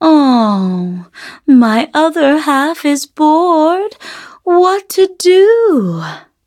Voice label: loading, en; Cookie Run